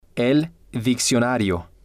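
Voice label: "el diccionario", Spanish, male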